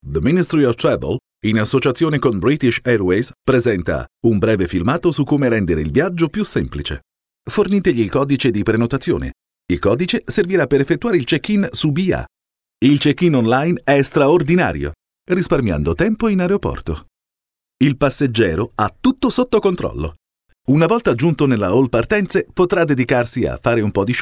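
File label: corporativo, Italian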